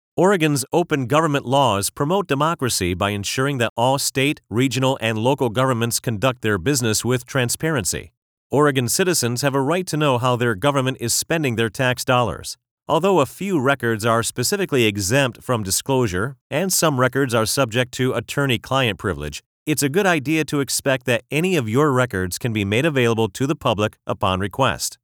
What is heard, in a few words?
english american e-learning